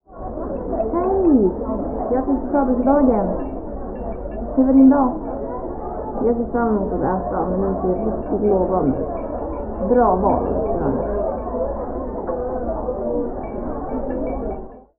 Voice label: dinner swedish without